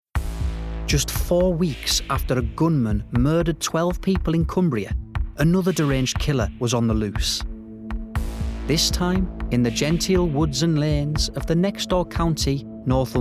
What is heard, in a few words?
doc, Voiceover; raoul moat doc